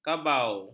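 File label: "kabau", Malay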